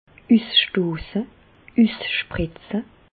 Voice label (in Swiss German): "usstosse", Bas Rhin; medecine; éjaculer